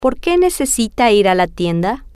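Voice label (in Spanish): Porque Necesita Ir Tienda, spanish, female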